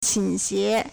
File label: "qing1 xing2", Chinese